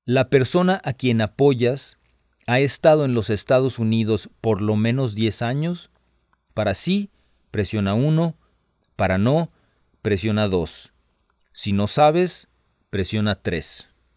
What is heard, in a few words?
Spanish, hotline